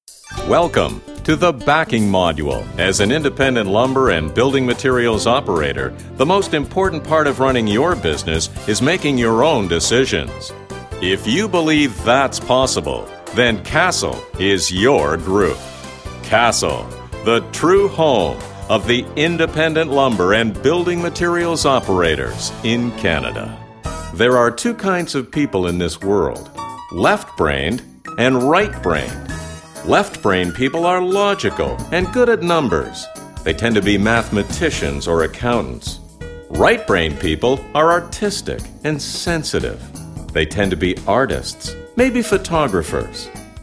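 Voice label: English Canadian, male, narration; voice demos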